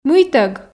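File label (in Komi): "мыйтӧг", Komi, female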